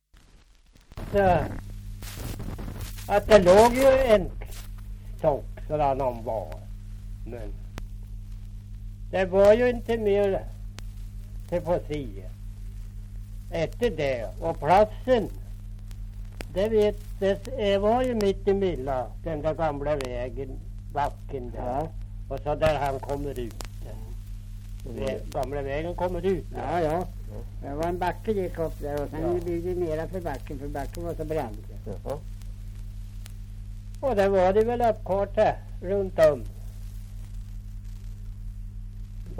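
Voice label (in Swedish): Björnlunda Södermanland, svenska sagor dialekter; Folkminnen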